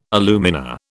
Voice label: "alumina", British

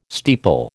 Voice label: "steeple", American

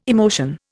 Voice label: "emotion", Indian

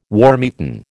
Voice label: "wormeaten", American

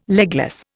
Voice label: "legless", Indian

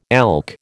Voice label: "elk", American

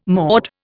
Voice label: "maud", Indian

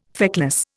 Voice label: "feckless", Indian

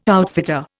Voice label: "outfitter", Indian

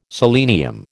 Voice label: "selenium", American

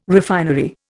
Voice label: "refinery", Indian

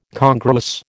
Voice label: "congruous", American

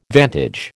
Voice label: "vantage", American

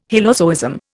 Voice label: "hylozoism", Indian

